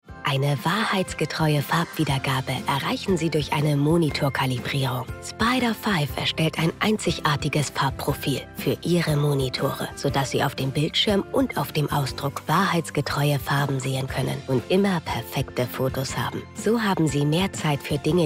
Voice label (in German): Produktfilm; datacolor